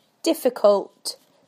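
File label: "difficult", British English; PictureDictionary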